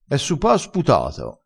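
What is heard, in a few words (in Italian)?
"sputato", vocabolario dialettale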